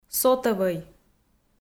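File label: "сотӧвӧй", Komi, female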